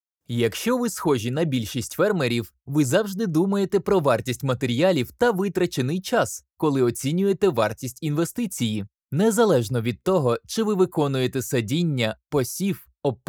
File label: Ad for an agricultural company in Ukrainian